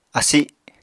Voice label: "asi", Apatani

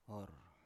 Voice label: "or", Biak

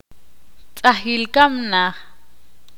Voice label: ixil; pante n tumba nicho

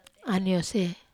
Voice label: "aniose", Wamesa

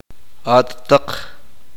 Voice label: uspanteko; vosotros ustedes

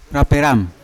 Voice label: "raperam" cut, Yawa

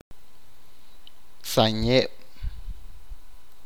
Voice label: arena, achi

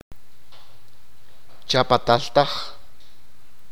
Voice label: "whole entire", achi

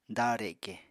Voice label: "dareke", apatani

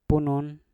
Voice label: "punun", Mpur; sekarang

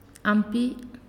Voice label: "What", Naasioi

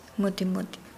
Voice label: Nukuoro

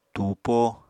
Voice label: "tuupo", Apatani

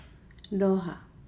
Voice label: "fathom", Nukuoro

